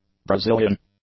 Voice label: "Brazilian", English; nouns

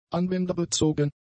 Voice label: "anwenderbezogen", German; adjectives

